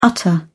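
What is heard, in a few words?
"utter", British English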